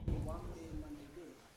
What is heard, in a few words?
"wande mandebe" we three, Yawa